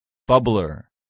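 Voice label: "bubbler", English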